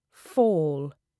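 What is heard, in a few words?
"fall", British English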